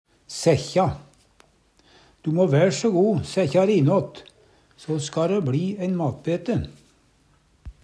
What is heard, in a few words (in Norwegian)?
sekkja, Numedalsmål